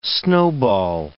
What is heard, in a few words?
"snowball", english